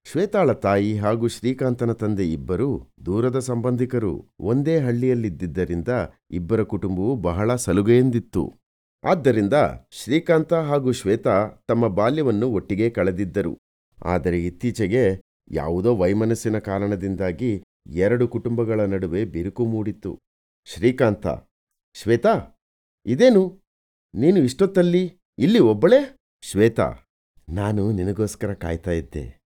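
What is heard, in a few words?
Kannada, male, Short Story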